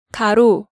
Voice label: "Garo", Korean